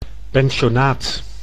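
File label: "pensionaat", Dutch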